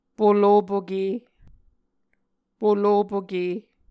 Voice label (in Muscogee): "polopoke", Muscogee